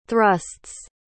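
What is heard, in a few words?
"thrusts", English word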